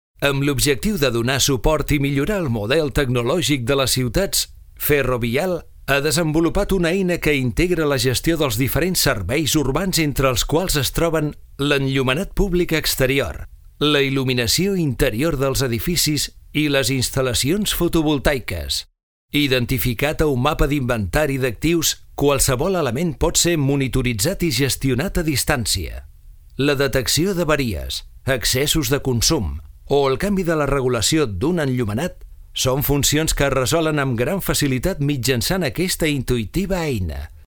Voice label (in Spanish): narración catalán